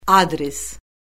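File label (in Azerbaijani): "adres", Azerbaijani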